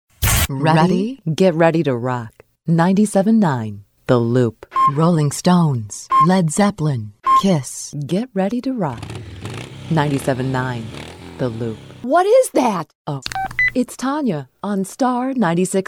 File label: Imaging